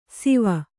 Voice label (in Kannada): "ಸಿವ", Kannada